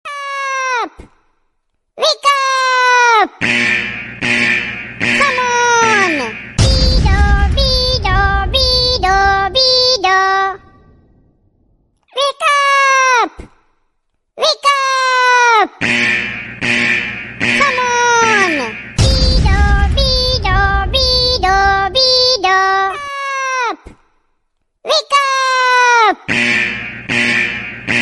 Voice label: wake up alarm sinhala minion wake up alarm minion ringtone cool alarm